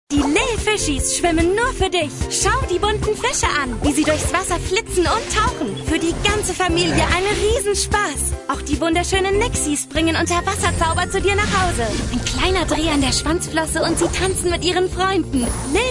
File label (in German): Werbung; Lil Fishies